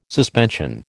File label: "suspension", American